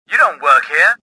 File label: suspicious; XBOX; worker